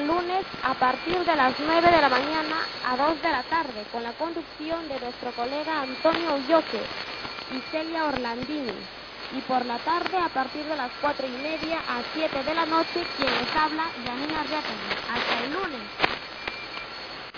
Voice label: 4319.4 Radio; Radio Condorcanqui; Nieva